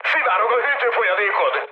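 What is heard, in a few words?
Hungarian damaged water mood high, speech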